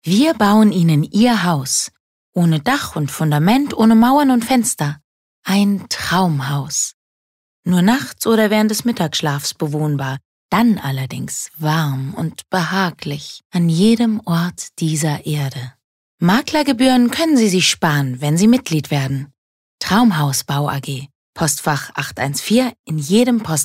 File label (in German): Werbung (Freundlich); Der Hausbau